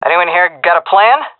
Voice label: request plan, vo fem; agents; Source Model Packs; Counter Strike Model Pack; CS2

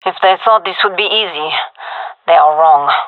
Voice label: round winning, fem; vo

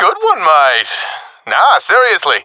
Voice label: sees enemy killed, professional epic; vo; Counter Strike Model Pack; CS:GO

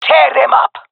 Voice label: attacking, jungle fem; vo; agents; Source Model Packs; Counter Strike Model Pack; CS2; jungle fem epic

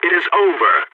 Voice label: radio bot end solid, balkan; vo

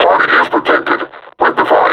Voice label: announce attack cover, combine suppressor

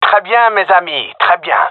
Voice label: round won, gendarmerie male; vo

